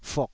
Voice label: "fuk3", taiwanese syllables